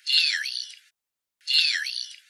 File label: High Frequencies; Laurel vs. Yanny